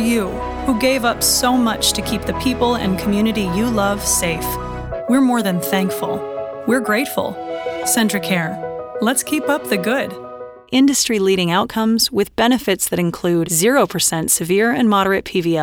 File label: Industrial Corporate Healthcare